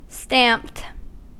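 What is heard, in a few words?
"stamped", US English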